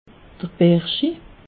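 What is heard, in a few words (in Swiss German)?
"berschi", Alsatian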